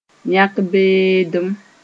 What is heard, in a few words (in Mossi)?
"yãk-beendem", moore